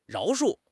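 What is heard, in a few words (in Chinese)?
"饶恕", Chinese; HSK6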